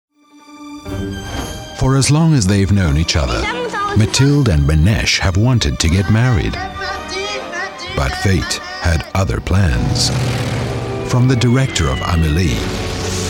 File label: TRAILER INTERNATIONAL